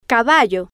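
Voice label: "caballo", Spanish, female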